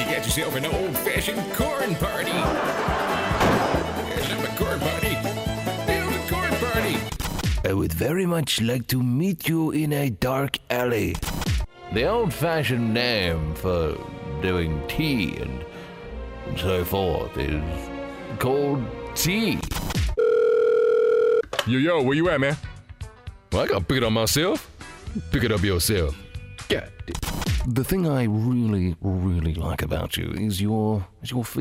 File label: Character Voices